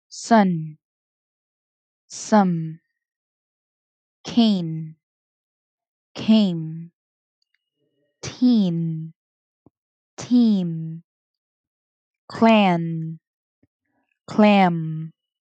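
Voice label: Minimal Pairs n m, pronunciation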